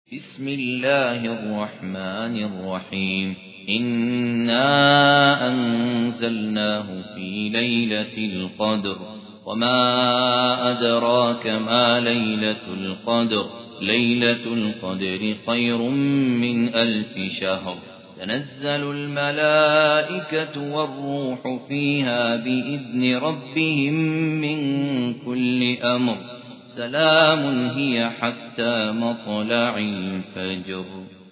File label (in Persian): ترتیل; عبدالله الکندری; سوره قدر